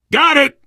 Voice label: kill confirmation, biker, survivor voice; Left 4 Dead 2

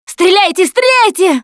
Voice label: heard tank, russian; Voice; Producer

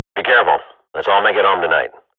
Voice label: radio bot start, Counter-Strike swat; Global Offensive; player vo

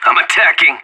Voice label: in combat, player vo anarchist; Counter-Strike Global Offensive

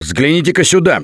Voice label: look here, russian; Gambler

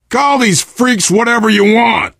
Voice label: generic, survivor voice biker; Left 4 Dead 2

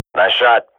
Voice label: radio bot nice shot, Counter-Strike swat

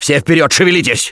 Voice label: emphatic go quiet, russian; Gambler

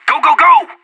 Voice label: radio lets go, player vo anarchist; Counter-Strike Global Offensive

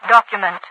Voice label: document, overwatch radio voice; Garry's Mod; hl2

